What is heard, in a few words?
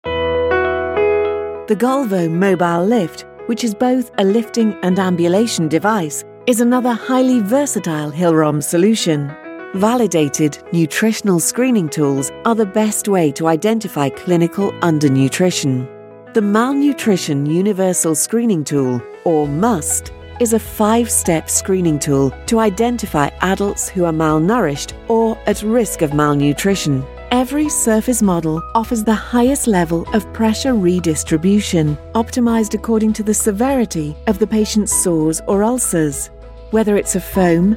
medical promotion and training